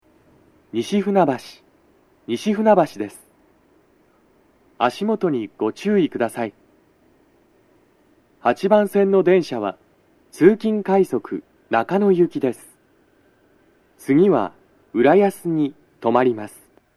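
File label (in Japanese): 到着放送 男声, 通勤快速 中野行き; 収録者:こたけ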